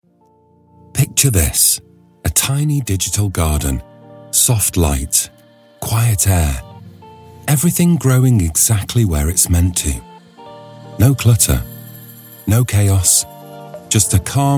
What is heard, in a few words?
explainer